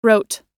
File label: wrote, us